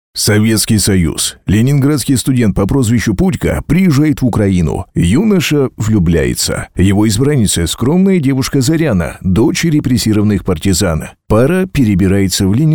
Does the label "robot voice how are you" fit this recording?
No